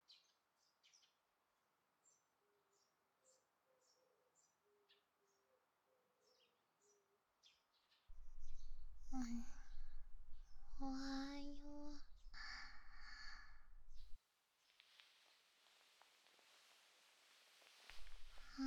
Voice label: 朝チュンピロートーク; ASMR

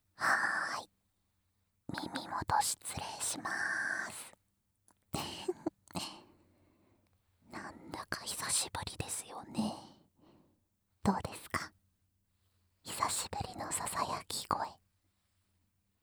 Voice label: 癒やしのささやき, ASMR; 反転

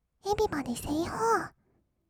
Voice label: "エビバディせいほー", kawaii voice; ASMR; 1200 kawaii voice!!; 音ゲー

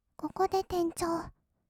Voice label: 「ここで転調」, kawaii voice; 1200 kawaii voice!!; 色々なワンショット

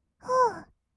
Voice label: "ふー", kawaii voice; ASMR; 1200 kawaii voice!!; 音ゲー

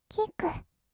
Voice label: キック, kawaii voice; 音ゲー

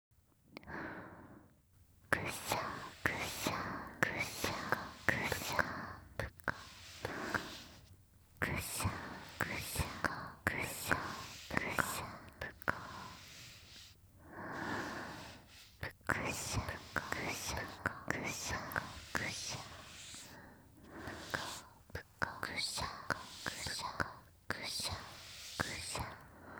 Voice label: 両耳オノマトペ なでなでSE有; 音量が小さくなる版

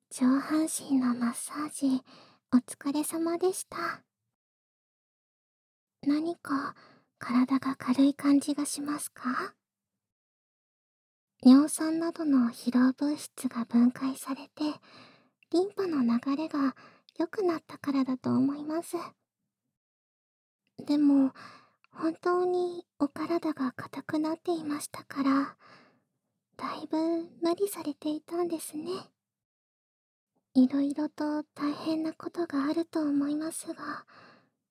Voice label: 下半身マッサージ＆囁き手コキ, ASMR

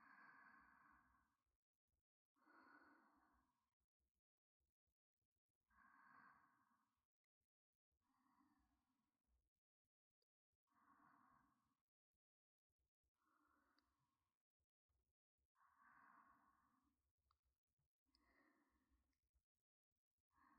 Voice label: 添い寝ループ, ASMR

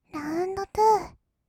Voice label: "ラウンド ツー", kawaii voice; ASMR; 1200 kawaii voice!!; 音ゲー

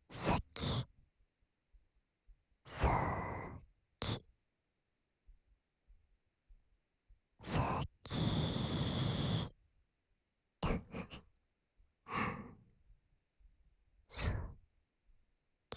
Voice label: 囁き（好き・左）言语侵犯

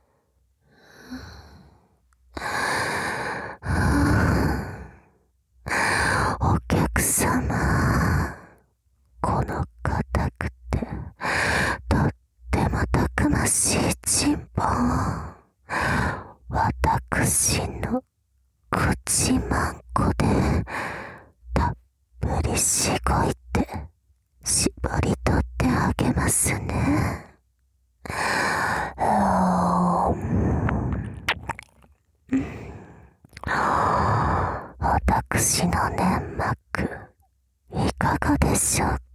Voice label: 語り掛け

